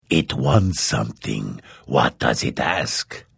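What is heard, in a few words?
Hello, Vo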